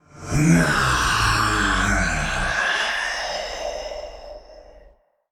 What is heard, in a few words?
Male Old God Death; Hearthstone; датамайн обновления 5.0